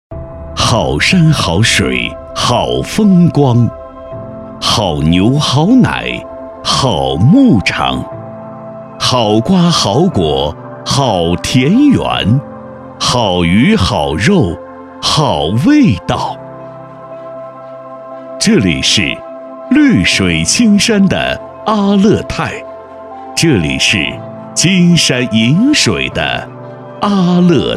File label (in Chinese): 男 宣传广告; 净土阿勒泰